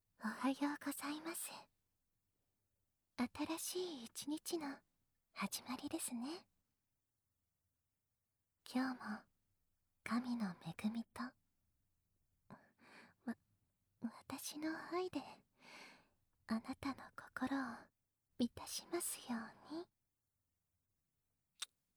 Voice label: 目覚ましボイス; おはよう・おやすみボイス; Wake-Up and Bedtime Voice

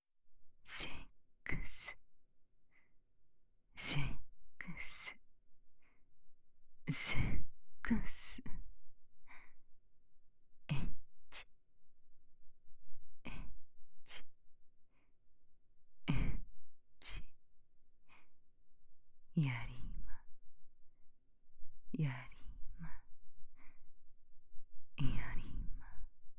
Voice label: 低音 囁き淫語連呼; 低音ver

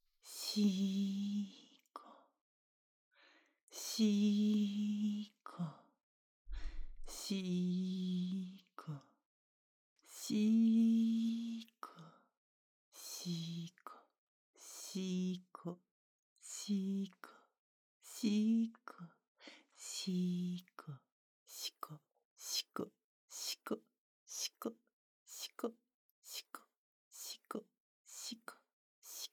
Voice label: シコシコボイス

